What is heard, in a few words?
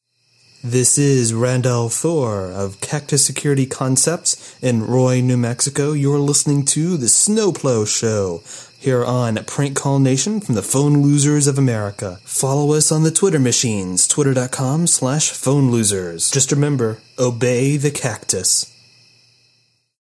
drop (vocal only); Phone Losers of America Media Pack 2014; Live Show Sounds; Drops; drop for Snow Plow Show